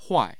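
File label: "huai", Mandarin Chinese, tone 4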